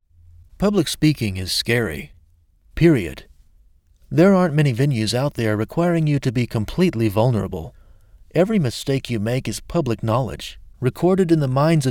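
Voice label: US English Male sample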